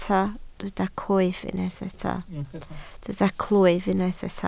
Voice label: "clwyf", Welsh

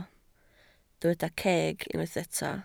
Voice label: "ceg", Welsh

